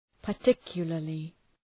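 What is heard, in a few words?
"particularly", English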